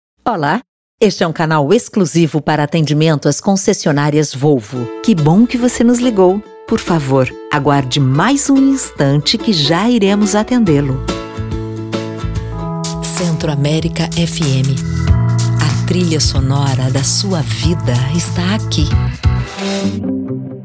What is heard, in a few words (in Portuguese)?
Repertório Ura